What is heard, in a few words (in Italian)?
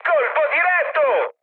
bomb success, mood high, speech it; War Thunder